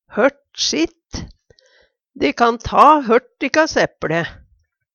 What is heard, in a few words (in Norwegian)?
hort sitt, Numedalsmål; De kan ta hørt dikkas epLe.